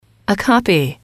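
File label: "copy", English, female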